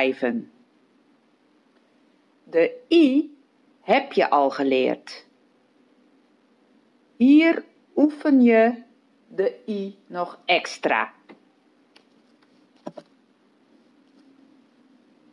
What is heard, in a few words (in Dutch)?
De dubbelklanken